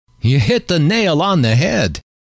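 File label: you hit the nail on the head, male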